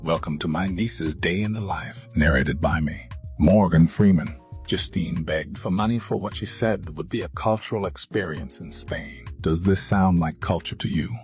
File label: AI FAKE VOICEOVER; MORGAN FREEMAN